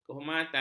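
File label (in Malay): "kehormatan", Malay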